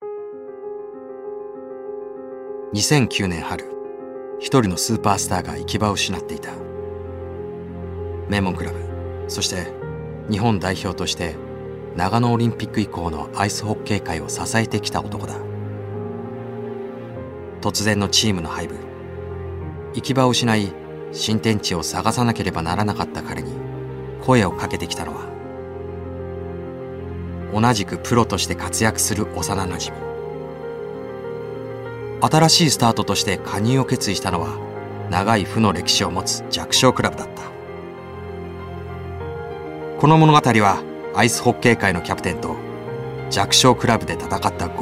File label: narration